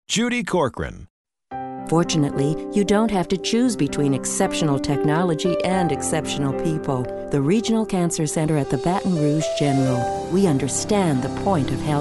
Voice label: CARING